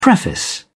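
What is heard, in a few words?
"preface", English, British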